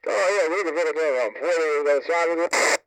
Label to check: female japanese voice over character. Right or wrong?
Wrong